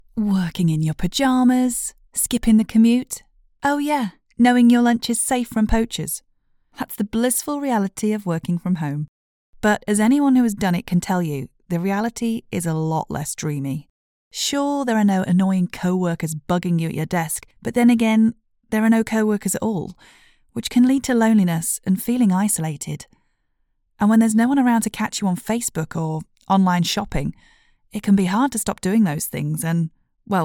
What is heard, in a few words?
english british training e-learning